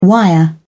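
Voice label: "wire", British English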